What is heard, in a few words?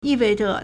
"yi4 wei4 zhe", Chinese